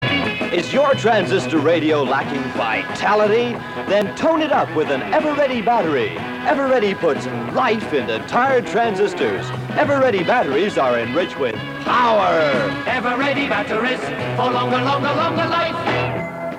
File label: batteries advert; Ever Ready